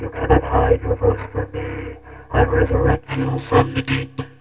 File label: deep, vocoder; You cannot hide your voice from me. I resurrect you from the deep; PAiA vocoder